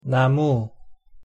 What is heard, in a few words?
"나무", Korean